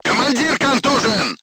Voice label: voice message commander contused, crew tanks russian